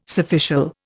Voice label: "surficial", Indian